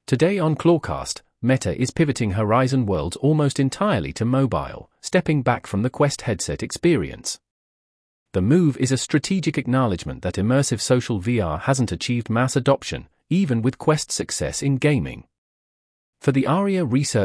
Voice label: UK